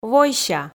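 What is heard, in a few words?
"войся", Komi, female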